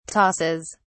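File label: "tosses", English, British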